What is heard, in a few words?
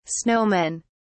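"snowmen", British English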